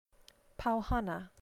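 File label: "pau hana", Hawaiian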